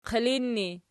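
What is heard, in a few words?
"qilin" ergative, Archi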